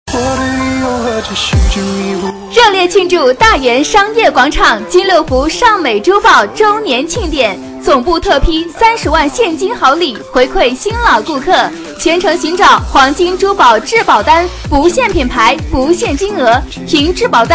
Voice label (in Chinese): 女 促销 珠宝